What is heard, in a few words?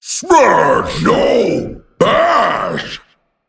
Male Ettin Attack